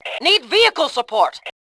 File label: "need support", Fem2; Tribes2